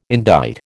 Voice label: "indite", American